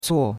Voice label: "cuo4", chinois; Cours-de-Chinois